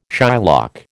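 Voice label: "Shylock", American English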